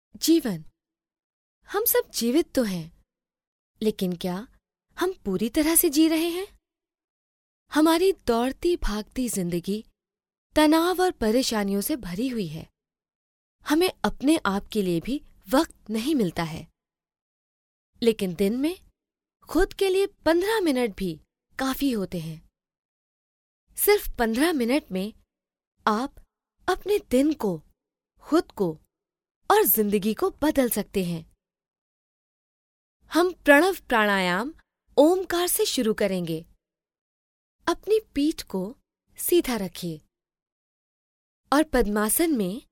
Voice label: Hindi Female